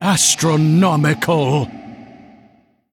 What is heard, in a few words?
Male Constellar Attack